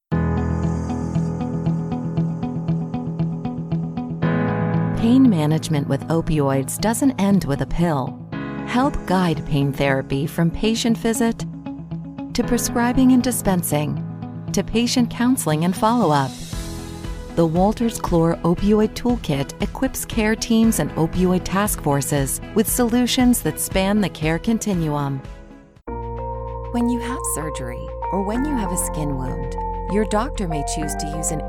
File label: medical narration